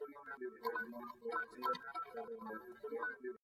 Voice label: Computer Talk